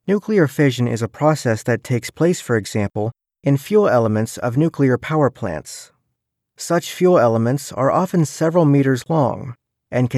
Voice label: E-learning Tutorial